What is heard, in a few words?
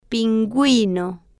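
"pinguino", slow, Spanish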